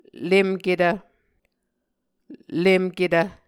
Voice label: "lemketv", Muscogee